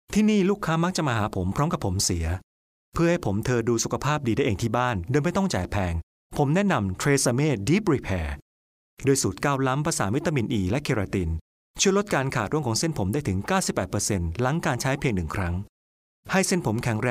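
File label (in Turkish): Tayca Erkek, Thai male voice; tayca seslendirme; erkek sesler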